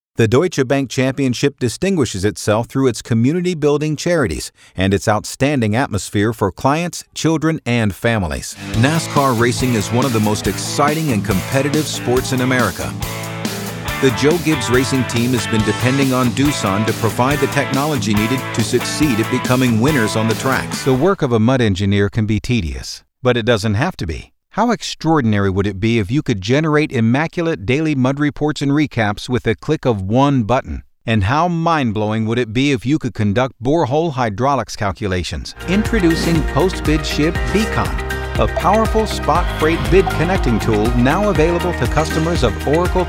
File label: Corporate Narration